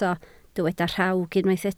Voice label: "rhawg", Welsh

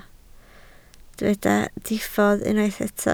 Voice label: "diffodd", Welsh

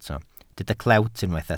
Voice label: "clewt" Welsh

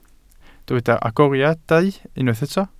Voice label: "agoriadau", Welsh

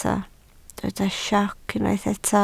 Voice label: "sioc" Welsh

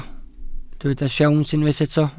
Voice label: "siawns", Welsh

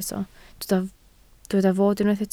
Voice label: "fod", Welsh

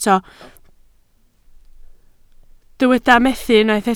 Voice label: "methu", Welsh

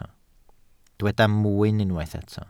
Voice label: "mwyn", Welsh

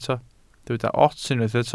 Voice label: "ots", Welsh

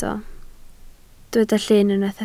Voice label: "llyn", Welsh

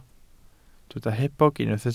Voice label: "hebog", Welsh

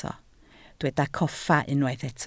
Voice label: "coffa", Welsh

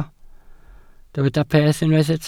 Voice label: "peth" Welsh

